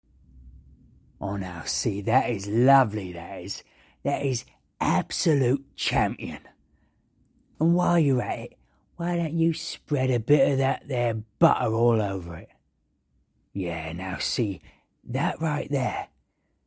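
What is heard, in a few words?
Cockney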